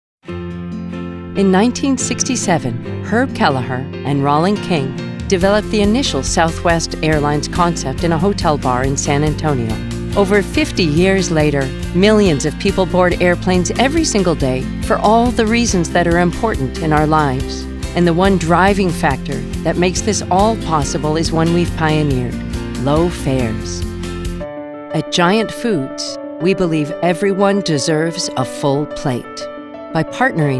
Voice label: corporate